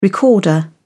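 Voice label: "recorder", British English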